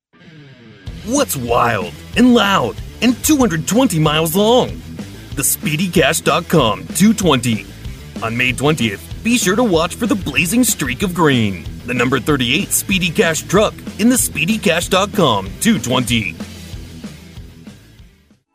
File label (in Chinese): 美式男声 激情风格